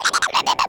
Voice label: Zombie